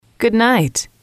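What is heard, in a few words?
"good night", English, female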